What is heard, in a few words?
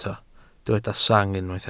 "sang", Welsh